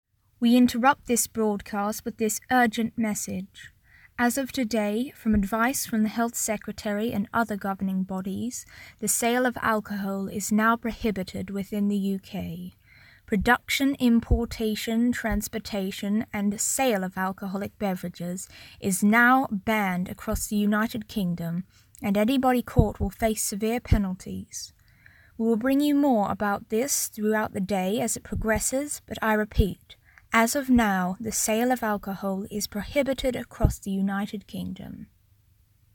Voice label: Female Newsreader; Topic Alcohol Is Banned In The U K